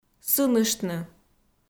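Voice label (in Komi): "сыныштны", Komi, female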